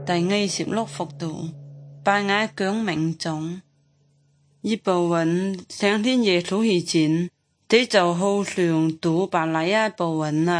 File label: good news zhuang guigang guiping